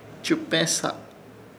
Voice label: "Chɨpeẽsa", Ishir Chamacoco; rodear